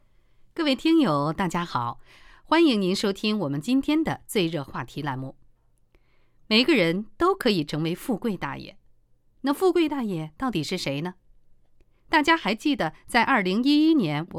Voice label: 电台栏目稿件

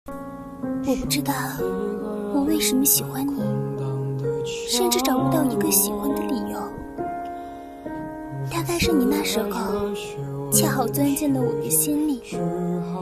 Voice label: 情感念白

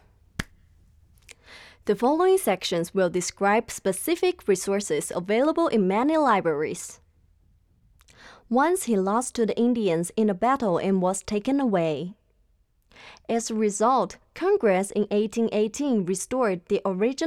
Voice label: 英样带

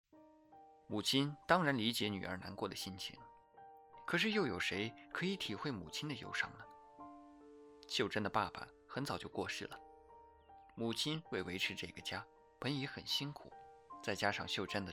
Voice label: 试音妈妈

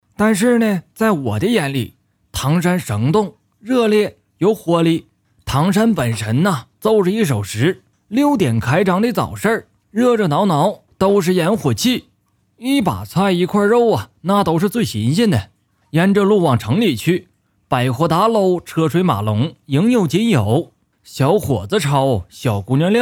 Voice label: 唐山话 老年音

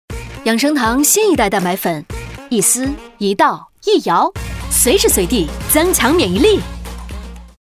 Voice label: 广告个性; 养生堂蛋白粉

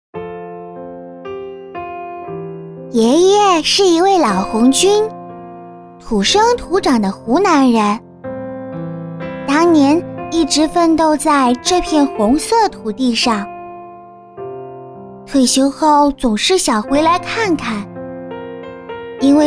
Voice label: 女童讲述

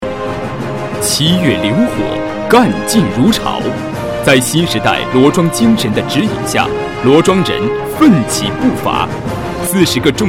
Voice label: 专题片

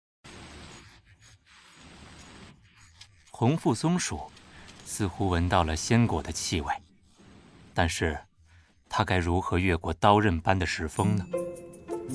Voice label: 小动物纪录片

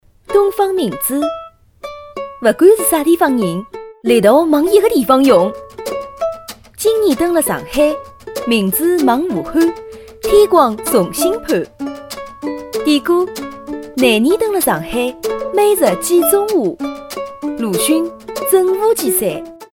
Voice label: 沪语; 赠邬其山

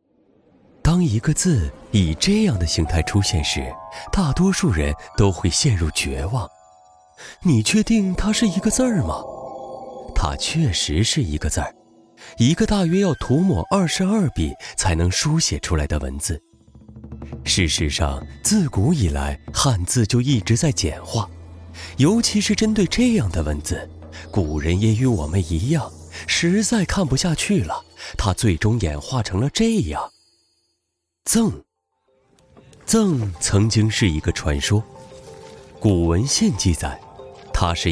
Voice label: 纪录片

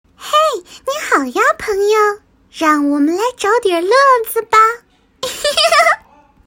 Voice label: 萝莉

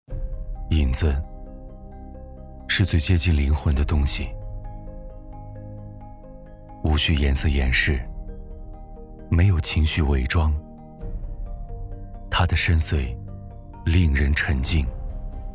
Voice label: 广告高端品牌样音

